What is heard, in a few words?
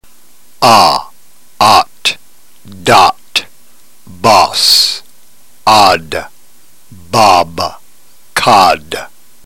drill, ntgreek